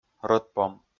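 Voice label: "рӧдпом", Komi, male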